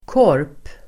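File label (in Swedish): "korp", Swedish; korp substantiv, raven; Uttal: [kår:p]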